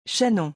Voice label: "chaînon", French